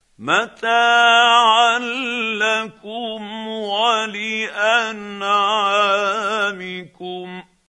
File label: quran, Hussary, tafseer; Abasa